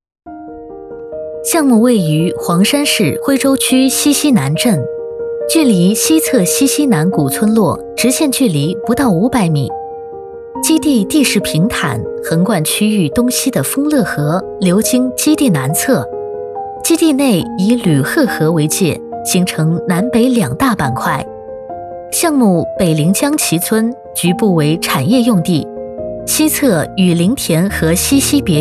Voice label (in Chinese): 项目解说; 西溪南镇; 汇报; 工程